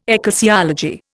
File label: "ecclesiology", Indian